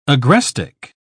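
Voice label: "agrestic", British English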